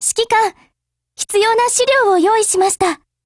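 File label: "hello", Japanese; GF Alarm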